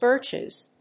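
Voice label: "birches", English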